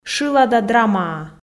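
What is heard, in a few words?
"шылада-драмаа", Komi, female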